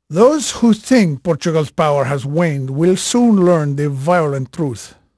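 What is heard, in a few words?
Portuguese male, voice; Pirates Of The Caribbean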